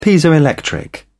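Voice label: "piezoelectric", British English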